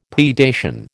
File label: "predation", American